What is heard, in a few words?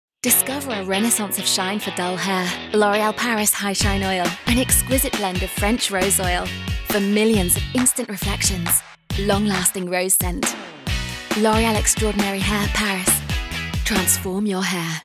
loreal young smiley